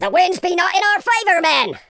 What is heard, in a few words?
"oh dear", Pirate